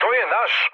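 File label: Serbian player friendly fire mood med; speech; War Thunder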